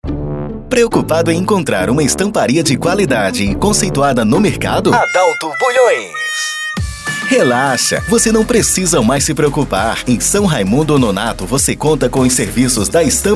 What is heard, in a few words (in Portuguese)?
banco de vozes banco de voz locutor vinheta